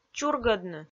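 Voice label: "чургӧдны", Komi-Zyrian, female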